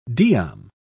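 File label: "diam", British English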